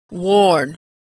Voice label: verb "worn", pronunciation; Homophones; warn worn